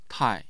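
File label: "tai4", Mandarin Chinese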